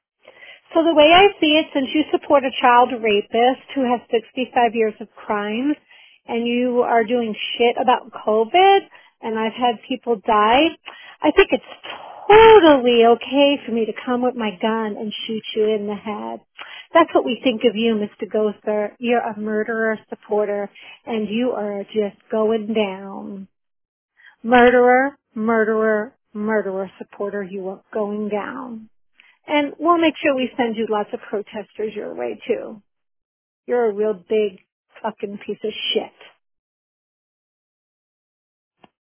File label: voice message